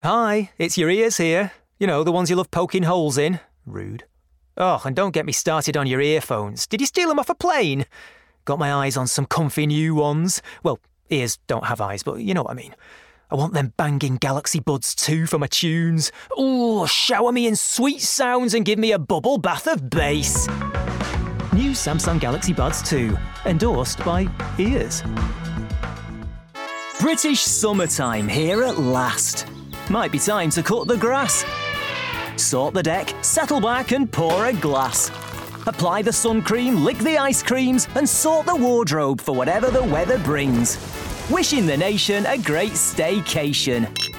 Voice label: Northern Montage; Commercial Showreel (Northern)

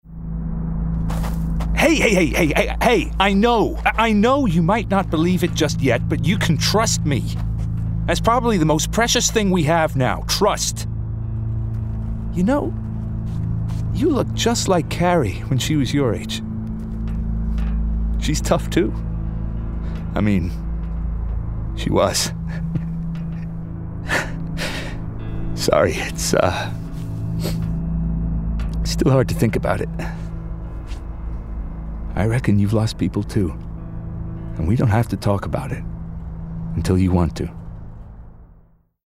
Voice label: Gaming Everyman US

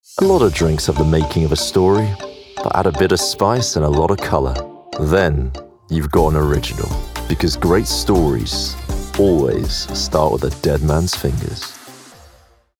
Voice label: Commercial; Deadmans

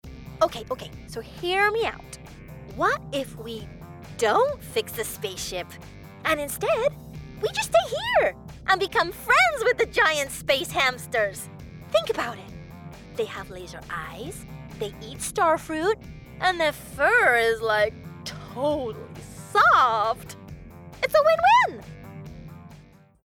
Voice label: Animation Space Adventurer

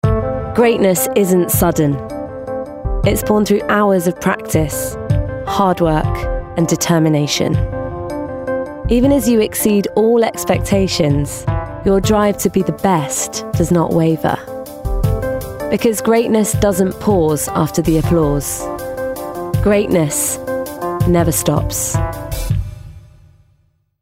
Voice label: Commercial; Beats By Dre

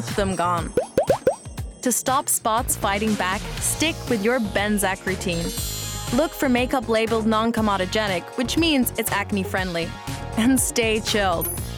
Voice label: Commercials Montage American

